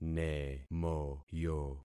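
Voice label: "nemoyo", concatenated syllables; Affixing experiment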